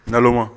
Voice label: "nalonma", Bambara